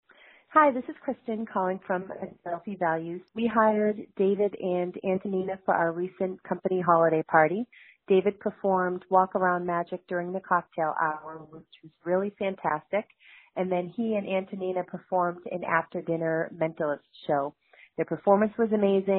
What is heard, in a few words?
VoiceMail